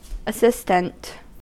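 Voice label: "assistant", American English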